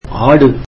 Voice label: tamil goat

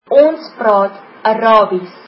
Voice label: we speak Arabic, afrikaans

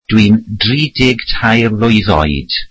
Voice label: welsh Im thirty three years old

